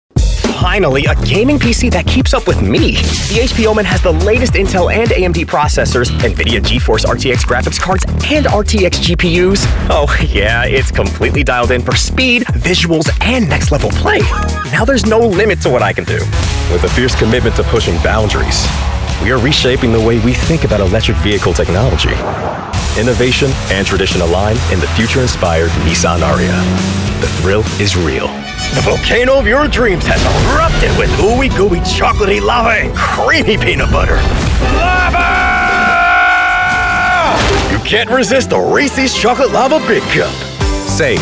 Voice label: Commercials; Hartford, United States

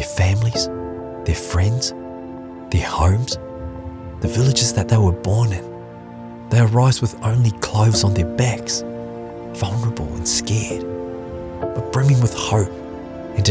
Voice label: Risk narration, en-AU

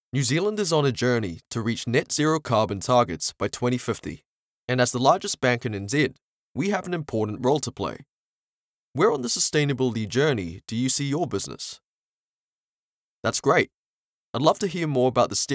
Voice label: NZ Accent Elearning, new zealand natural

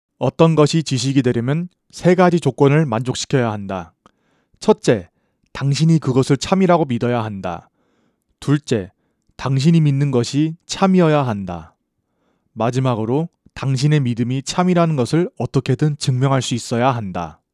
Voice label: Voice Actor; BigMouth Voices

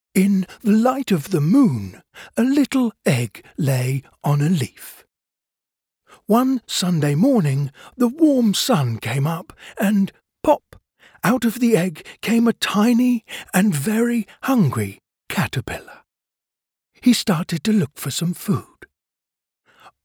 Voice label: David Attenborough style; en-AU; The Very Hungry Caterpillar

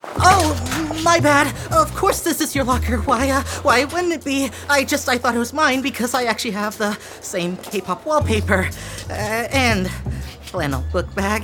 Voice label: Animation

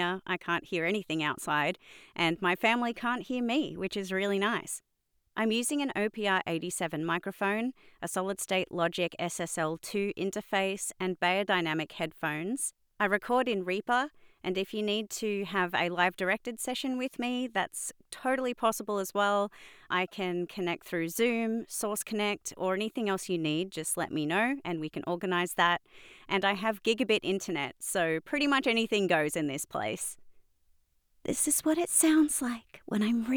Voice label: VO Booth Raw Sample, australian natural; VO Booth Raw Sample Normalised; Sydney NSW, Australia; english

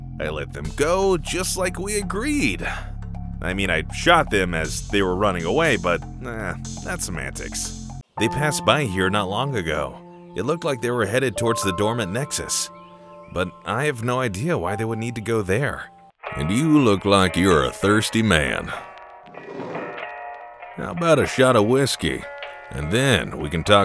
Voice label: Video Game, en-AU

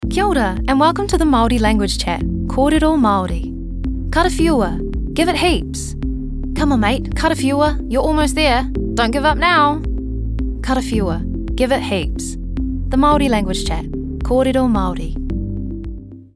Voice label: Language Use Maori

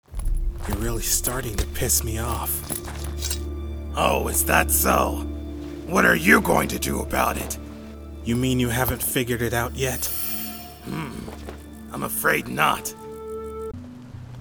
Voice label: Character; Naples, United States

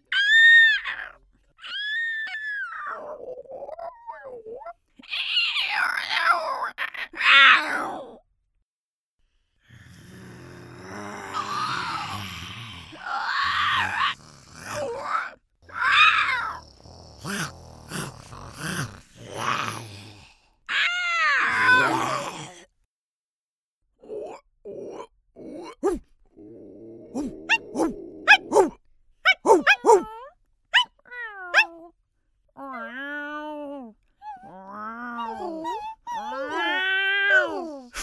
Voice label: Creature

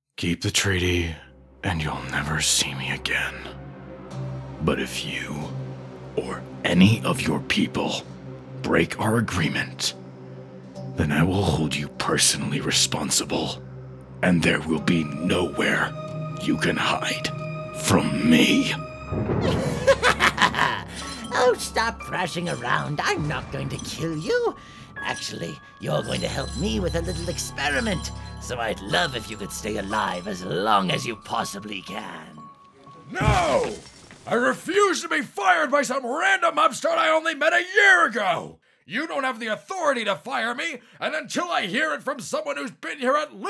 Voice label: Character

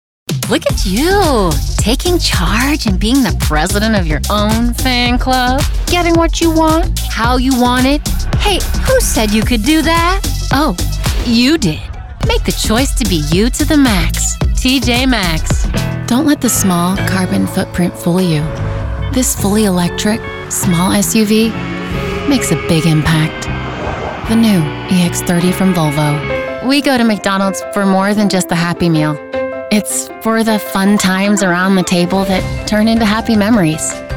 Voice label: Commercials; Clearwater, United States

